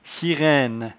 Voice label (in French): "sireinne", patois jura